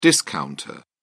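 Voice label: "discounter", English, British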